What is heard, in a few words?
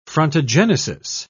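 "frontogenesis", British English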